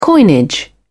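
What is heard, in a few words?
"coinage", British English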